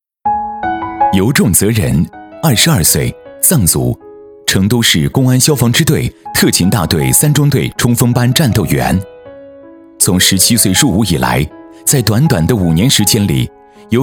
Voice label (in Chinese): 男 专题 电视解说 人物专题